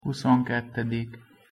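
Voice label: "huszonkettedik", Hungarian pronunciation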